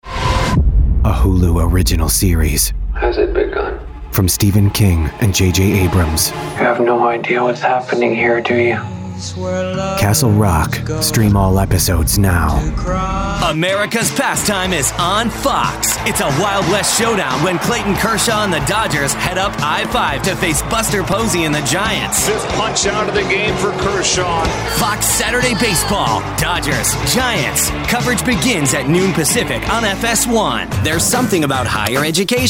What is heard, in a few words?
Promo